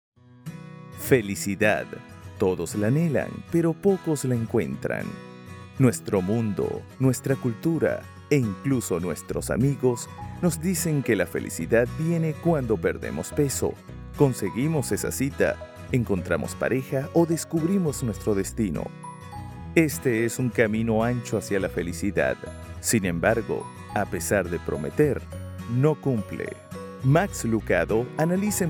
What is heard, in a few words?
spanish latin american narration